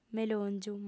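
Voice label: "melòngiu", female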